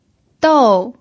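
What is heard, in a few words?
"dou", fourth tone, Mandarin Chinese pinyin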